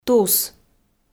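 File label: "тус", Komi, female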